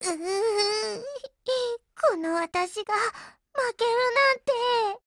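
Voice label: BREAK Japanese, Voice; GF Alarm; EVO3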